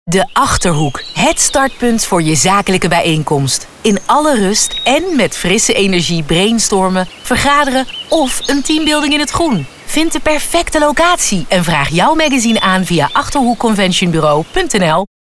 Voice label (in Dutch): Spot Achterhoek